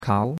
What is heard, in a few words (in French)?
"kao2", chinois; Cours-de-Chinois